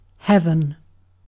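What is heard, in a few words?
"heaven", British English; pronunciations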